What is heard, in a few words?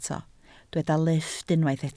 "lifft", Welsh